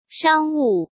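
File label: "商务", Mandarin Chinese; chinese flashcards; hsk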